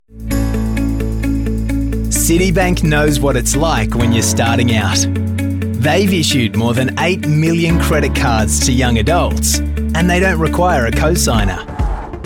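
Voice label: Australian English, male, comm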